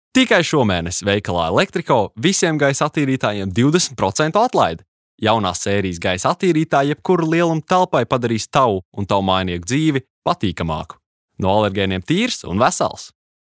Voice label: Reklama

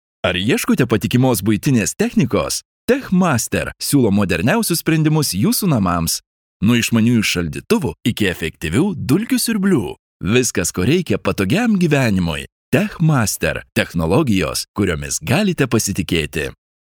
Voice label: Reklama

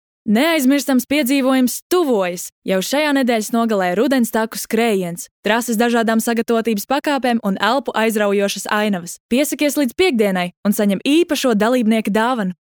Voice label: Reklama LV

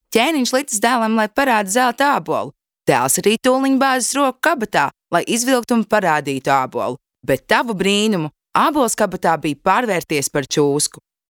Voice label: Pasaka LV

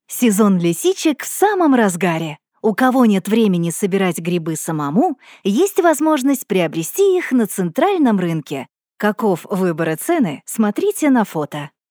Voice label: Reklāma RU

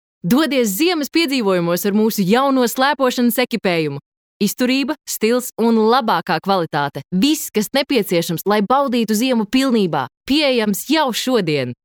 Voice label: Reklama LV

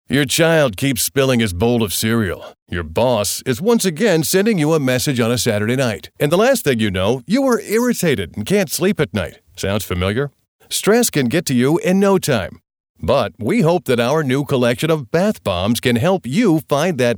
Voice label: Reklāma

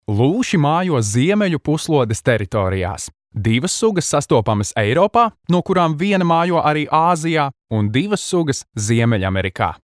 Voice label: lv

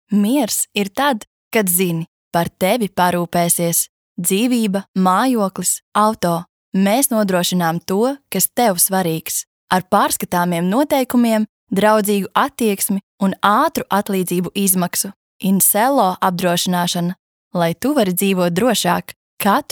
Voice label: Reklama

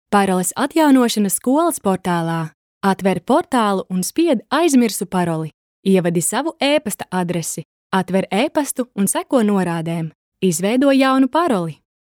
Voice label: LV Infografika

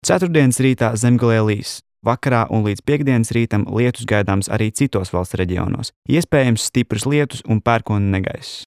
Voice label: lv

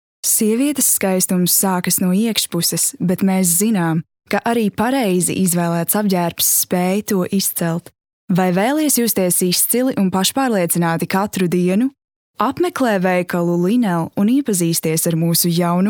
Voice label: Reklama

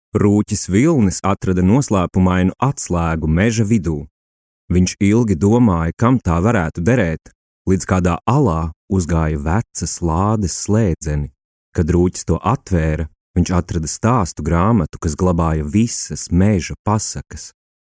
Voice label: Pasaka LV

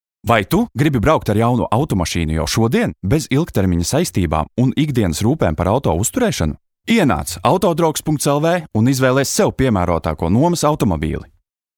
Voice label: Reklāma

